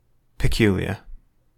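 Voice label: "peculiar", British English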